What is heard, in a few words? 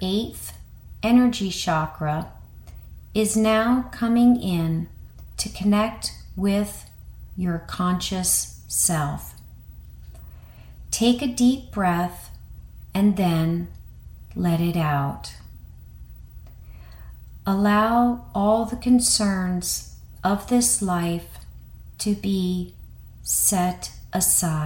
dolphin meditation, guided meditations